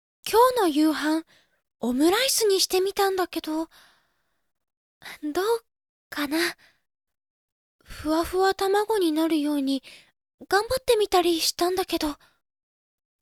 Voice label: girl